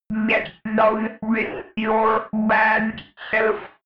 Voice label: robot voice funnky fx

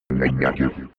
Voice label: robot voice negative fx

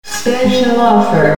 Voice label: spec offer, voices

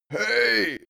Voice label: male old hey

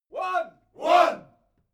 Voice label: military shout english "one"

